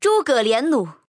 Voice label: "zhuge", female